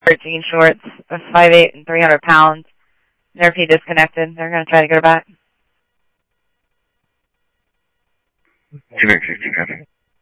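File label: Simulcast police department, scanner audio trunk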